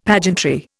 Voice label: "pageantry", Indian; Dictionary

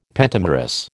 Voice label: "pentamerous", American

